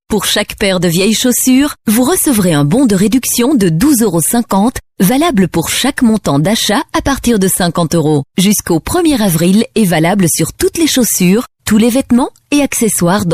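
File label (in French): Belgian French, female, narration; voice demos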